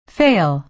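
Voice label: "fail", American English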